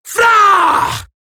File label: Taunt, Female; Warframe; Eximus Grineer Female; Battle Barks